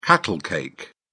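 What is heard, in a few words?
"cattle cake", British English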